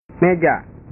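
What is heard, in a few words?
"meja", Indonesian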